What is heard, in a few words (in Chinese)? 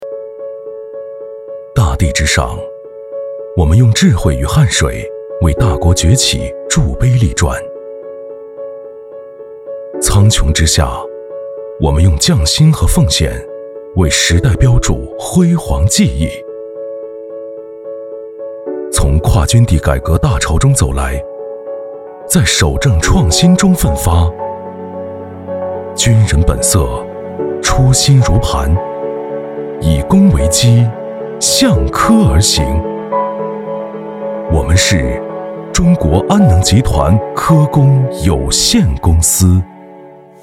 能源 宣传